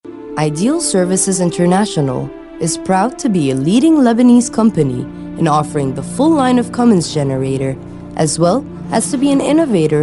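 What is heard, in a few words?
English narration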